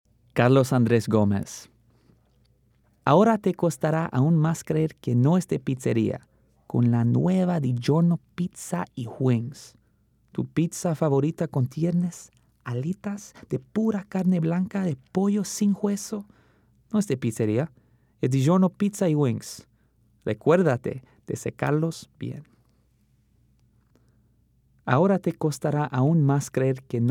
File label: Spanish